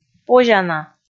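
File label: "позяна", Komi, female; kpv audio dict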